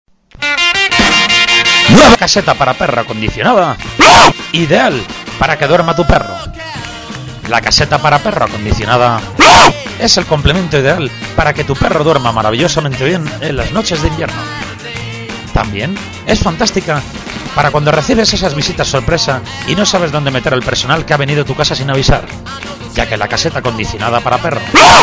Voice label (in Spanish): Publicidad; Caseta para perro Mare